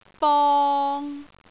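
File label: "bong3", Cantonese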